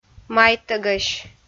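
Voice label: "майтӧгӧсь", Komi, female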